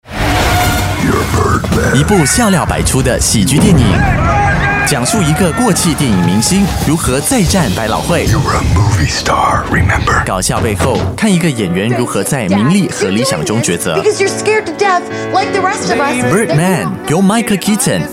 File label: Mandarin Trailer, Male; China; Birdman